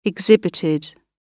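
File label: "exhibited", British English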